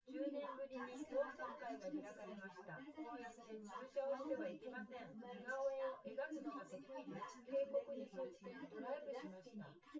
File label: female; SiSEC08; SiSEC underdetermined